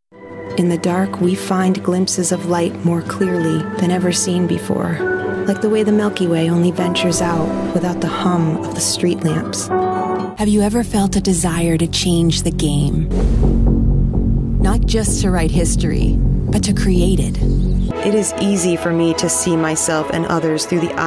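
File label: American English narration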